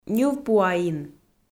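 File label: "ньывпуаин", Komi, female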